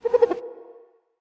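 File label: goblin voice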